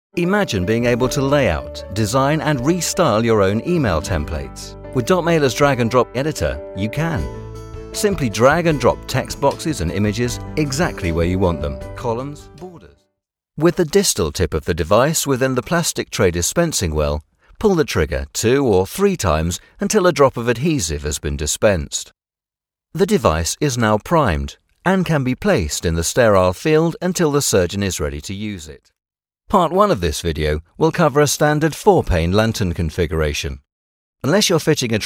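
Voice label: Explainer, British Male Voice Over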